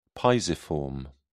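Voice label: "pisiform", British English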